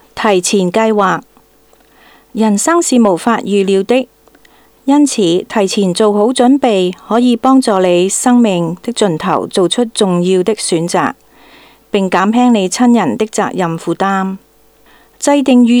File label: Palliative Care Cantonese Plan early